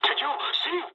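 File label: Japanese enemy detected mood med; War Thunder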